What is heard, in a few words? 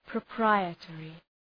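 "proprietary", English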